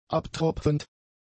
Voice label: "abtropfend", german; adjectives